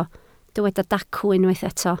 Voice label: "dacw", Welsh

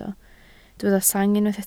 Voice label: "sang", Welsh

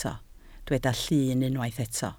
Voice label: "llun", Welsh

